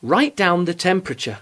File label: "write down", English